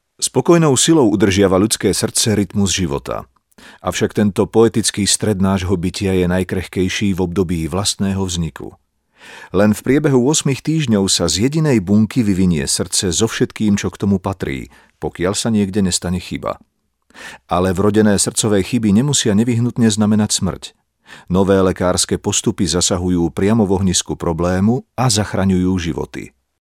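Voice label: Male Slovak documentary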